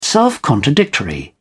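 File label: "self-contradictory", British English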